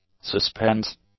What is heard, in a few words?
"suspense", english; nouns